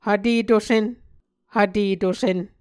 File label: "hvtetusen", Muscogee